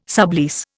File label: "sublease", Indian